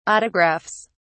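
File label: "autographs", English, British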